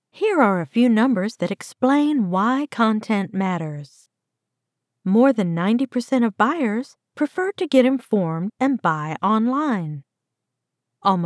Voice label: Corporate Explainer